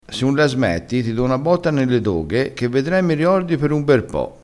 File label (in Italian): "doghe", vocabolario dialettale